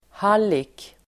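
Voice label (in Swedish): "hallick", Swedish; Uttal: [h'al:ik]; pimp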